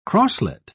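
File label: "crosslet", British English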